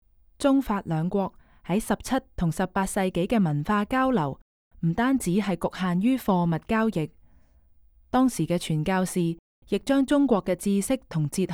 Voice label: Cantonese, audio guide; versailles

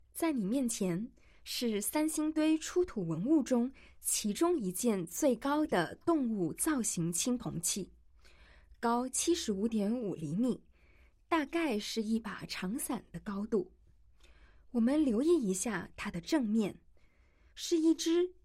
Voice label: Mandarin, audio-guide; gazing at sanxingdui

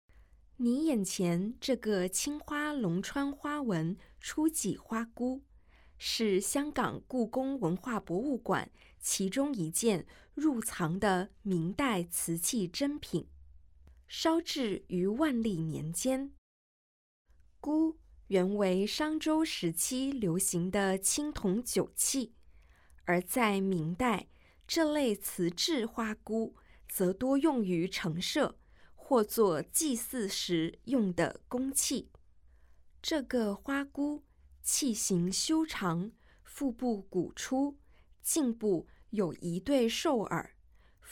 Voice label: Mandarin; audio guide; g3-ming-dynasty-ceramic-treasures-from-the-palace-museum

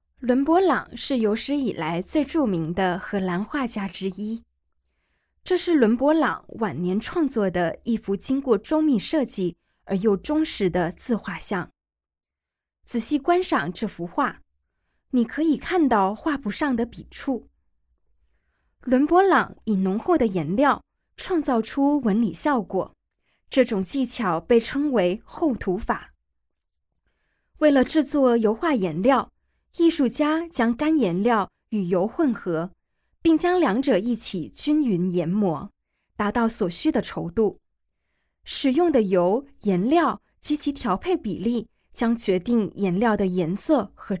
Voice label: Mandarin, audio guide; g9-botticelli-to-van-gogh

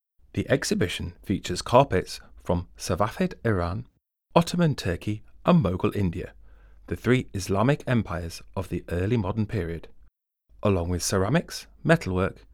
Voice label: English, audio guide; Exhibition introduction; carpets from Safavid Iran, Ottoman Türkiye, and Mughal India

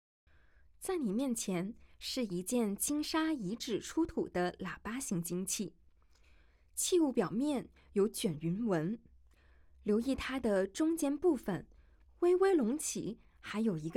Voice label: Mandarin, audio-guide; gazing at sanxingdui

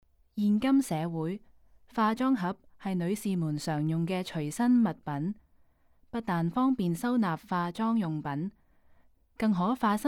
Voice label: Cantonese, audio guide; cartier and women

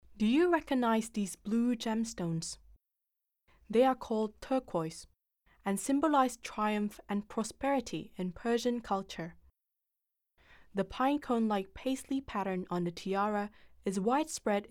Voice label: English, audio guide

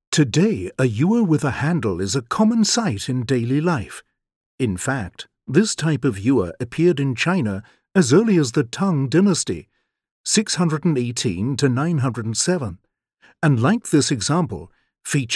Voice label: ENG; Ewer with hunting scene; Sasanian Empire (present-day Iran), 6th to 7th century; movable feast

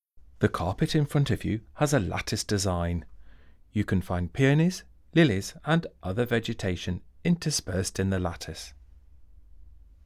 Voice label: English, audio guide; Flower and lattice carpet; Lahore or Kashmir; Mid-17th century

